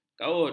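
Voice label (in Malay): "kaut", Malay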